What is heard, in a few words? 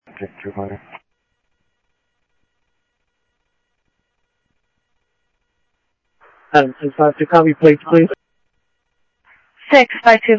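Sheriff West County, scanner audio trunk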